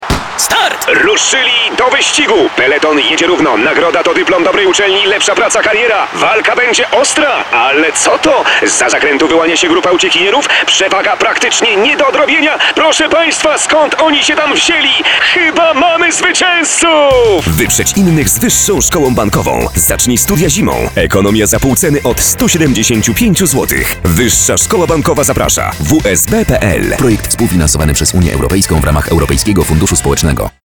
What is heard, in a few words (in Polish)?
komentator; WSB z EFS